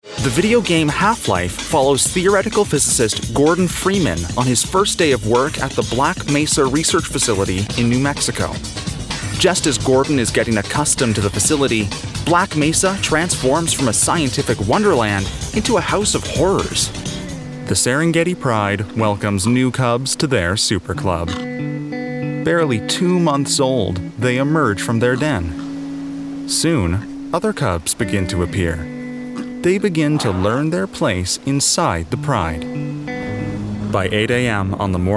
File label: Documentary